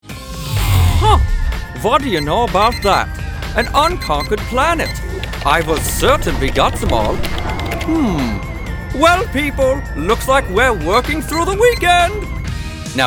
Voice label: Animation